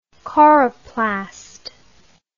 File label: "coroplastic", English